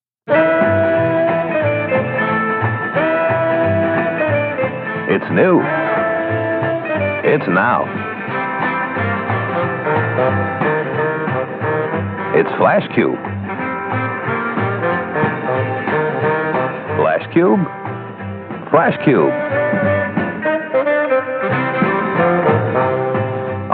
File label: Flashcubes Camera Commercial; Kodak Instamatic